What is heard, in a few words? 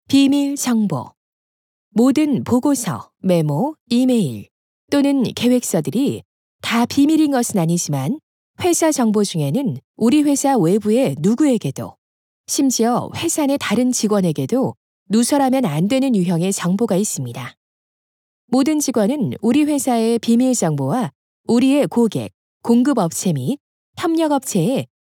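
Korean elearning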